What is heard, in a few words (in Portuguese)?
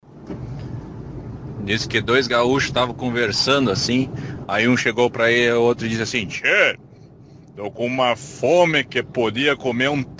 dois gauchos conversando